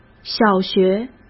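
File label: "小学", Mandarin Chinese; hsk; chinese-flashcards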